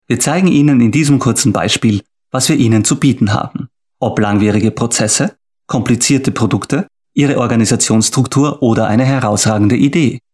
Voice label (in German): Character Animation Nur Sprache kurz